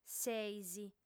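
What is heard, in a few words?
"seis", lingua sarda, female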